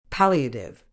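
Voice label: "palliative", medical English, British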